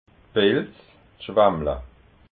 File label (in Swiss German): "pilz", alsace